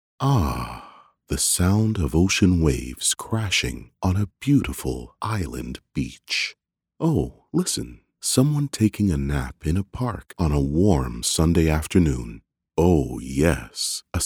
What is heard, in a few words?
english canadian promos